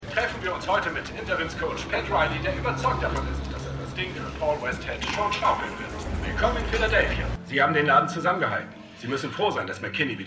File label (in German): Sportreporter; Philly; Winning Time